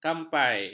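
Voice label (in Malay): "kampai", Malay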